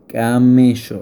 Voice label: Camel spanish